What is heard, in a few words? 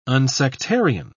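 "unsectarian", British English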